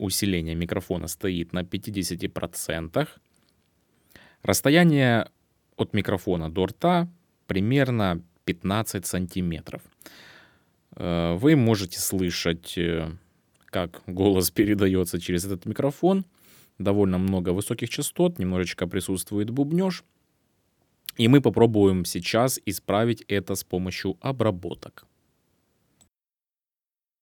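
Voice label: Sennheiser Profile USB voice; USB микрофоны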